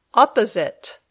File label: "opposite", English